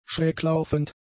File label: "schräglaufend", German; adjectives